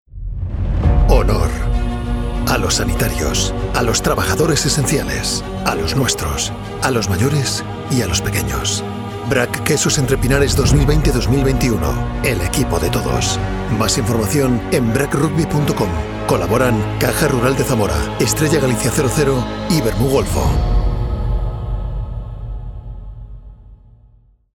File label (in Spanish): cuña campaña abonados; VRAC